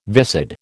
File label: "viscid", American